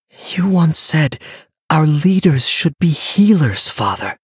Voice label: Male Human Emote Start; Varian Wrynn